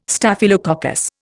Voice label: "Staphylococcus", Indian